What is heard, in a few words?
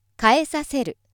female